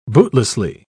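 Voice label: "bootlessly", British English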